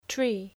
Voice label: "tree", English spelling